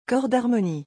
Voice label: "cor d'harmonie", French; vocabulary; musical instruments